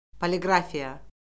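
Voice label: "poliqrafiya", Azerbaijani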